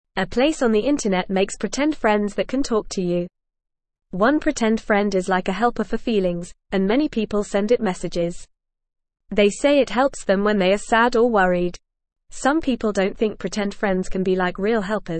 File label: English Beginner FAST Reading; A special website with pretend friends for kids